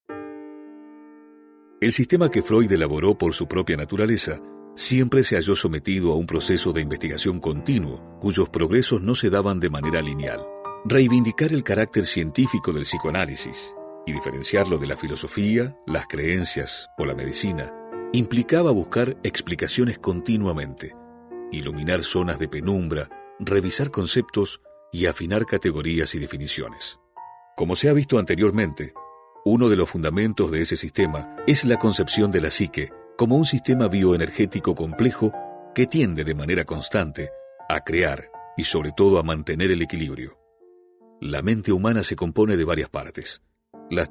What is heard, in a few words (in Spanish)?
Rioplatense